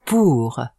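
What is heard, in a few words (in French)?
"pour", French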